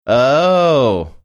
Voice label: drawn out oh